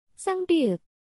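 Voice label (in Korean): "ㅃ", Korean, consonants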